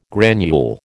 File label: "granule", American